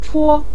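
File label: "chuo" Mandarin Chinese, neutral tone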